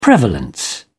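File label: "prevalence", British English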